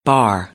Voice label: "bar", American; English; ɑːr - ɑːr